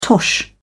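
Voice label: "tosh", English, British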